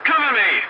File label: voice message cover me, english; War Thunder